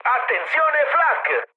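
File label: Italian damaged aa mood med, speech; War Thunder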